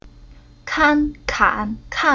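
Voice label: "Kan", Chinese syllable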